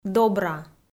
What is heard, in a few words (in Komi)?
"добра", Komi